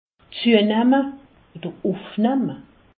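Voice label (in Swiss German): "zuenamme", Alsatian; medecine; grossir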